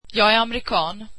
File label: swedish Im American